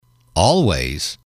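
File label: "always", english, male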